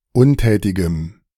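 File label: "untätigem", German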